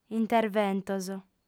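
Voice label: "interventos", female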